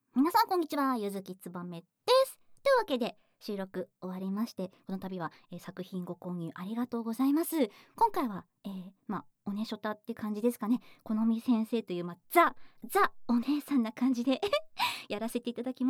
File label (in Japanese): フリートーク